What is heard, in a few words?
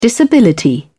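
"disability", British English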